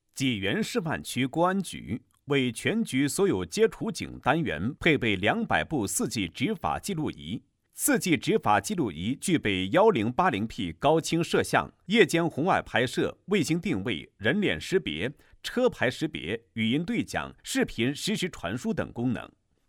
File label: male chinese voice over talent narrative; mandarin chinese